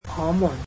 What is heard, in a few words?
"we", Cantonese